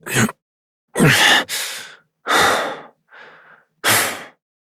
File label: Horse Dismount Adult; Duviri